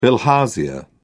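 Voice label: "bilharzia", English, uk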